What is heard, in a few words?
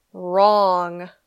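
"wrong", English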